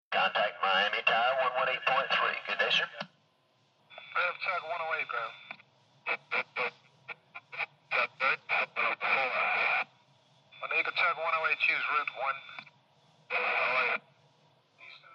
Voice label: Air to ground communications, Miami flight dispatch office